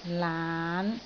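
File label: "laan5", Cantonese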